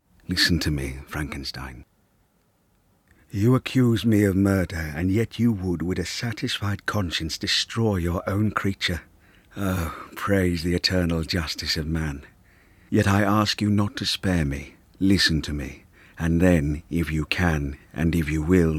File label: Audiobook; Liverpool; Versatile and Earthy; 30-40